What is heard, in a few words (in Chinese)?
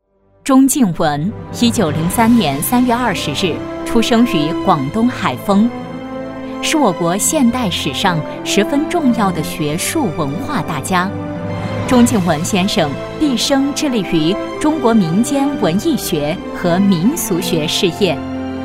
女 人物 专题 大气; 人民的学者